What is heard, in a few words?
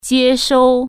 "receive", Chinese